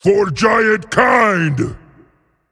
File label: Male Giant Attack